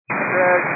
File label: 122 925MHz, scanner radio